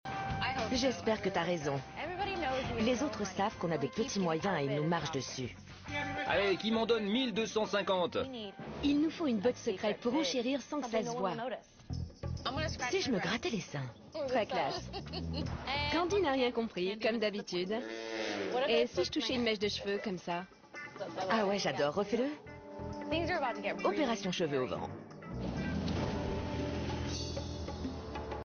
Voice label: storage war vo